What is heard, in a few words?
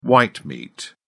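"white meat", British English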